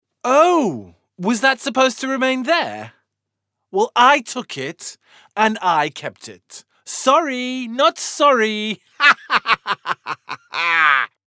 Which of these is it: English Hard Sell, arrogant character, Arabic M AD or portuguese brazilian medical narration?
arrogant character